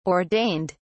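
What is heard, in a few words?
"ordained", English, British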